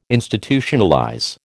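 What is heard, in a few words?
"Institutionalize", American English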